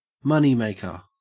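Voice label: "moneymaker", Australian English; pronunciations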